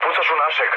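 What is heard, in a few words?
Serbian player friendly fire mood low; speech; War Thunder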